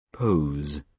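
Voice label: "pose", British English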